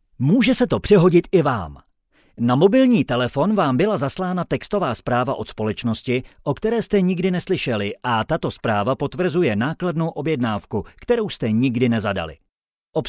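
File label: czech male commercial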